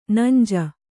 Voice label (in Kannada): "ನಂಜೆ", Kannada